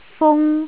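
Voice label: "fung1", Cantonese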